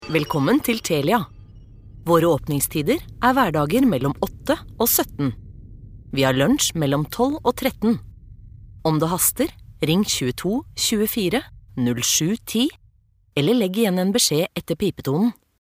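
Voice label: Norwegian interactive voice response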